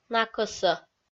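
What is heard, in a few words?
"накӧсӧ", Komi, female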